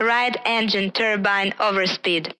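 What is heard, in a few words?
"right engine torque", English; Ka-50; Almaz; DCS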